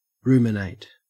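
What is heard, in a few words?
"ruminate", Australian English; pronunciations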